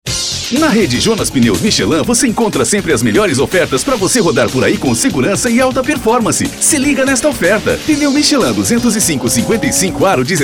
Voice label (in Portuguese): Spot; Michelin; Jonas Pneus